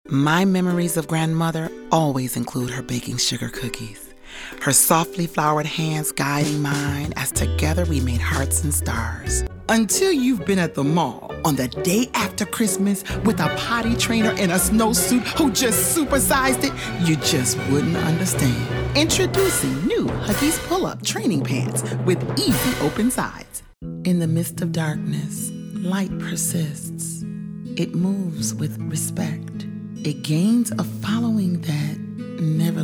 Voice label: Commercial; AdvantageIVR